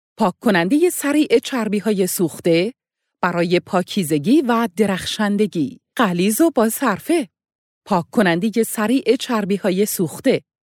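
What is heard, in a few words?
Farsi, female; farsi voice over talent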